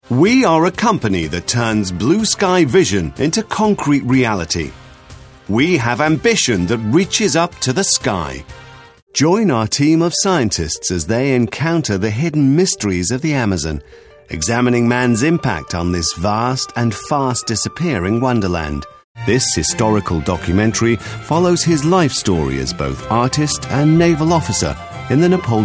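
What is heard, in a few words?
British English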